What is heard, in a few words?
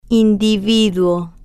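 "individuo", slow, spanish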